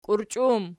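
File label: "kiurchi" plural, Archi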